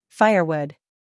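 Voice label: "scandal", American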